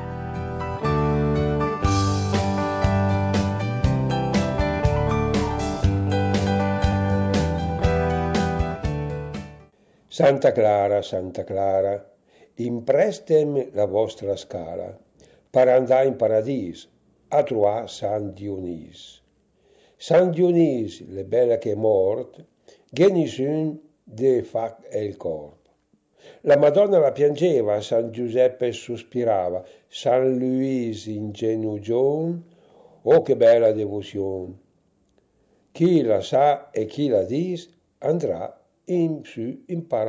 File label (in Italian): dialetto filastrocche